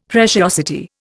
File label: "preciosity", Indian; Dictionary